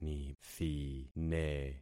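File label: "nithine", concatenated syllables; Affixing experiment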